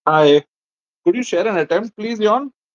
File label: voice message